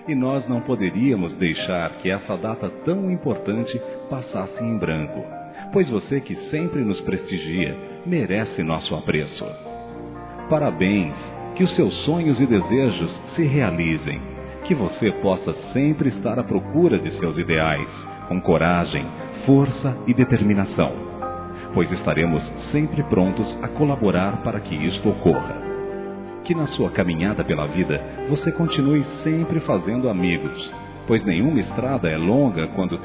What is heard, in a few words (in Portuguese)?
ANIV. CLIENTE M, Telemensagens; Empresarial; masc; Telemensagens 2017